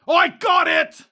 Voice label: Dwarf Kill Enemy